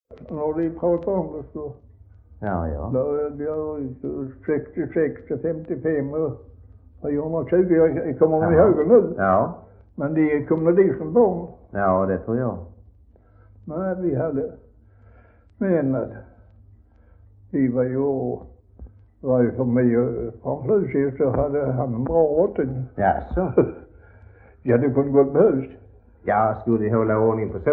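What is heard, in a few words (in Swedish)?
Västra Ingelstad Skåne, dialekter; Folkminnen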